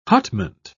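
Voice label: "hutment", English, British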